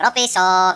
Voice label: "drop", Finnish; Worms Armageddon

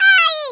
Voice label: ouch, Hispanic; Worms Armageddon

